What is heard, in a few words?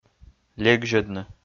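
"легзьӧдны", Komi, male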